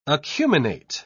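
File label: "acuminate", English, British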